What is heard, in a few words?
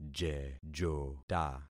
"jejota", concat syllables; Affixing experiment